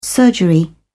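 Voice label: "surgery", British English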